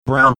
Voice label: audio glitch while saying brown